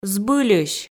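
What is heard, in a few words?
"збыльысь", Komi, female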